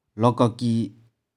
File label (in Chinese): "loh4koh4ki1", 玉環